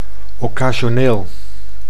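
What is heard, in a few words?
"occasioneel", Dutch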